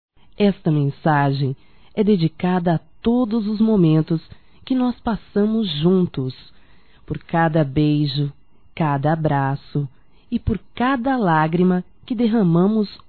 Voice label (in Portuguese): DEDICATÓRIA(F), Românticas fem